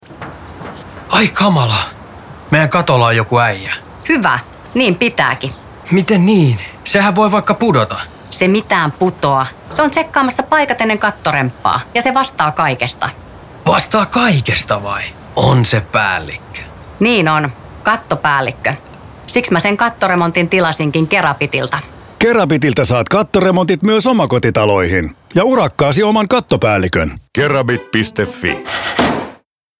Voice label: Radio; Kerabit Kattoremontit